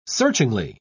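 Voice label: "searchingly", British English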